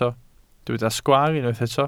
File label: "sgwaar", Welsh